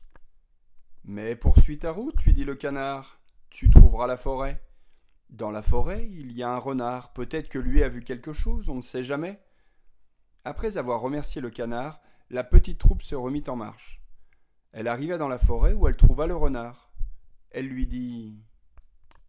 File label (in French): narration